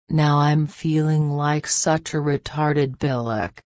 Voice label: frase pillock, reino unido; girias